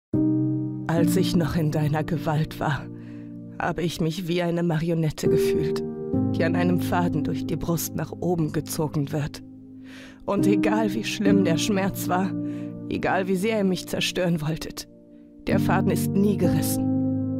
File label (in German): Sprecherin für den Buchtrailer; »Stockholm« von Sophie Nuglisch